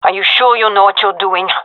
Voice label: taking fire friendly, gendermerie fem; vo

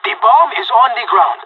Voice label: spotted loose bomb, pirate; Counter Strike Model Pack; CS:GO

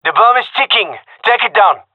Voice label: hear bomb, gendermerie male; vo

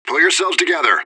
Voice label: radio bot regroup; fbihrt

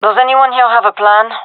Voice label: request plan, gendermerie fem; vo

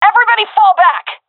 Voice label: request fallback, seal fem; vo; agents; Source Model Packs; Counter Strike Model Pack; CS2

